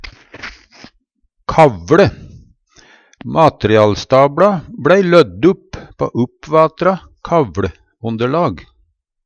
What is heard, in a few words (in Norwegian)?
kavLe, Numedalsmål